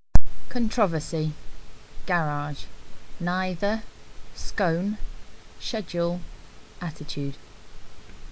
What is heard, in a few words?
high wycombe england female I've lived in lancashire for 11 years now